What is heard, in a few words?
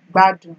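"gbadun" Nigerian Pidgin, female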